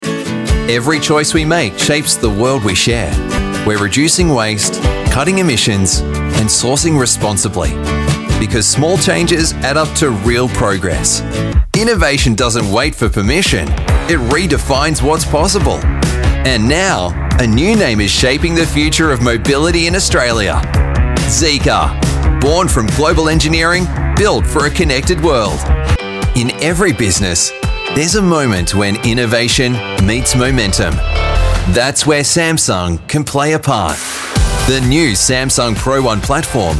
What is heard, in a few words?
AU English Corporate